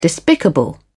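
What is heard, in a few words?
"despicable", British English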